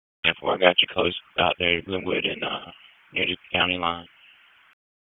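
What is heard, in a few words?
Police Southwest District Disp, radio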